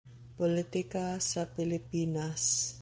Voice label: Cebuano; Philippine politics; politika sa Pilipinas